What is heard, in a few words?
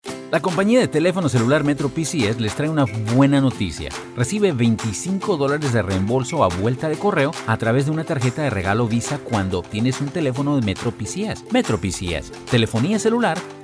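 Latin American Spanish general; GoLocalise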